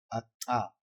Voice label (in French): Clic dental sourd